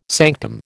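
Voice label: "sanctum", American English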